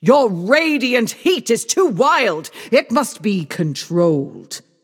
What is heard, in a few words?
taunt directed; smite; aphrodite; sol